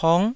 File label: "pong9", taiwanese